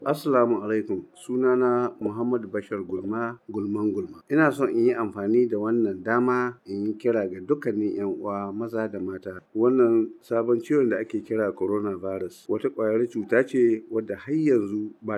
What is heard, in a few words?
Kebbi Traditional leader Hausa